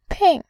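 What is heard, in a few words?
"pin", Mandarin, tone 4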